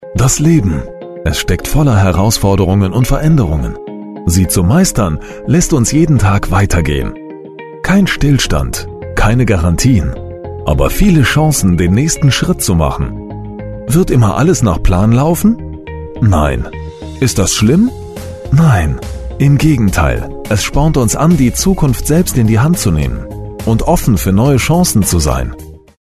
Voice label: german voice over male narrator

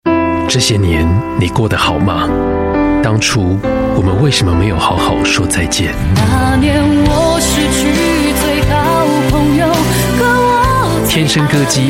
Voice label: chinese voice over male commercial

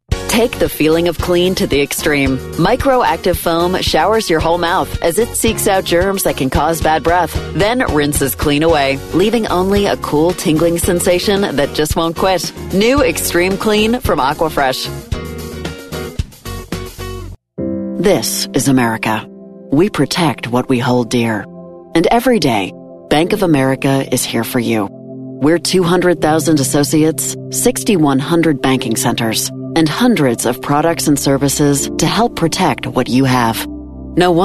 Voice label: english american voice over female narrator

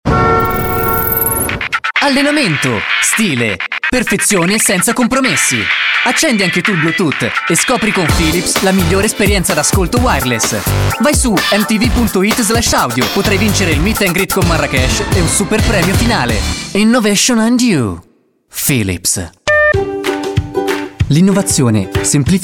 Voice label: italian voice over male commercial

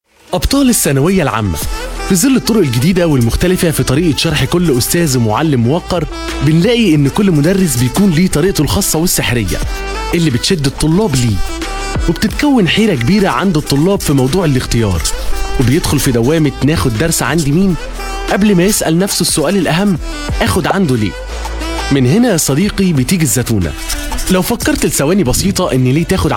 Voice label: arabic voice over male narrator

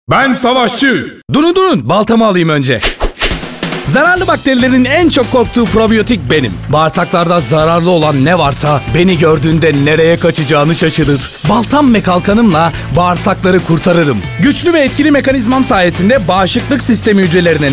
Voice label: elearning; probiyotik savasci

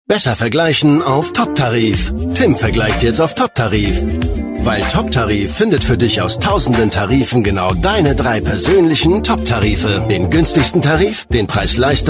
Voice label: german voice over male commercial

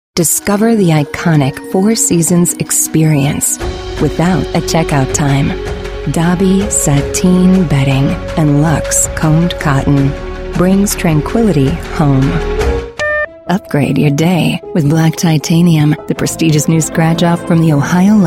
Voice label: english american voice over female narrator

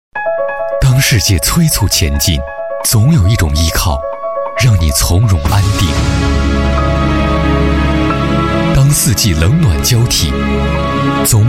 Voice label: chinese voice over male commercial

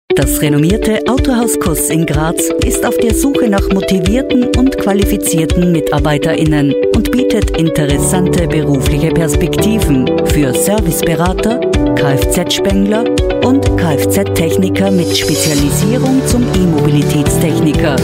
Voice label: german voice over female commercial

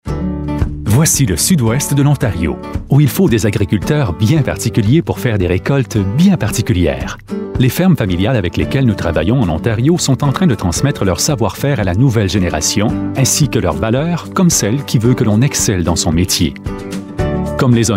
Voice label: french voice over male narrator

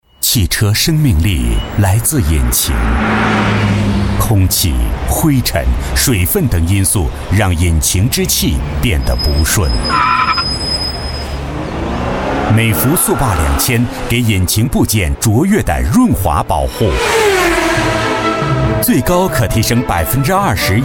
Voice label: chinese voice over male commercial

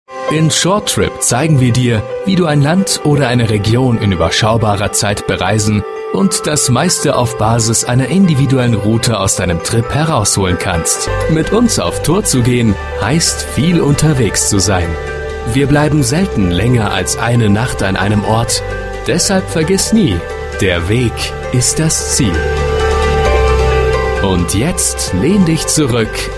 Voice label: german voice over male narrator

